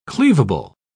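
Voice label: "cleavable", English, uk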